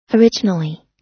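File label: "originally", US English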